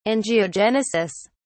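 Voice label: "angiogenesis", British English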